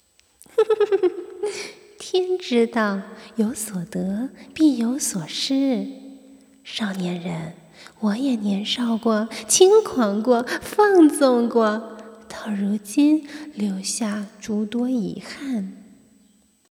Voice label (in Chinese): 动画 人物